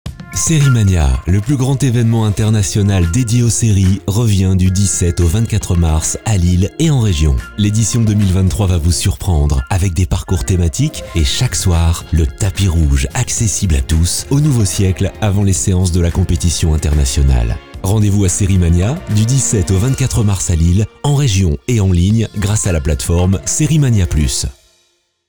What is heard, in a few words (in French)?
SPOT; SERIES MANIA 2023; VERSION COURTE